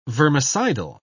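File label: "vermicidal", British English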